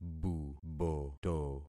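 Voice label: "buboto", concatenated syllables; Affixing experiment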